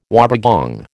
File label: "wobbegong", American